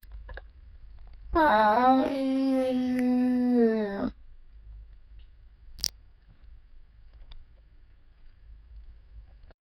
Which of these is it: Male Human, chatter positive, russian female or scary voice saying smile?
scary voice saying smile